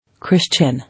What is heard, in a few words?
"Christian", English